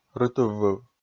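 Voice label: "рытыввыв", Komi, male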